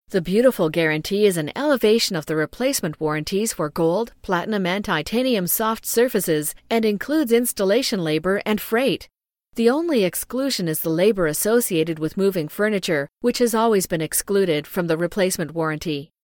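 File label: US English, corporate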